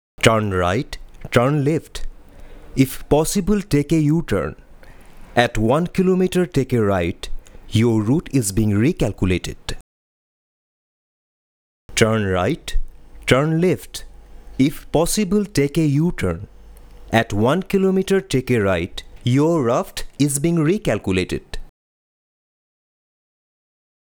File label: Assamese male voice